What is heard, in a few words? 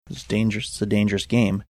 quietly saying this dangerous it's a dangerous game